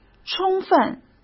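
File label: "充分", Mandarin Chinese; hsk; chinese-flashcards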